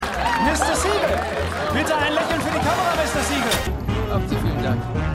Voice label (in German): Fotograf Mann